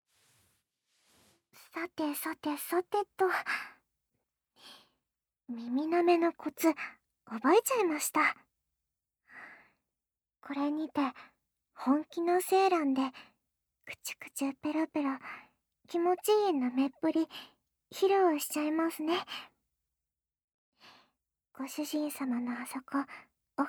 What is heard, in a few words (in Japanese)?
メイドさんの耳舐め研修そのに～もっとディープな耳舐めしていいかな～, ASMR